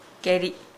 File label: "dig", Nukuoro